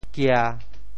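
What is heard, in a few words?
"kia1", Teochew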